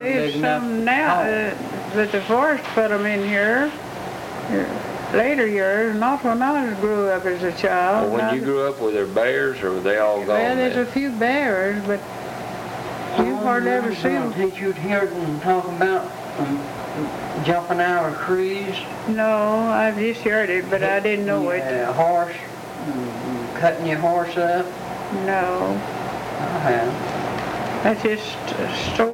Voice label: Conversation with 91 year old male and 77 year old female Arkansas, american english dialect